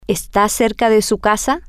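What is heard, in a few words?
are You Close To Your House, Spanish, female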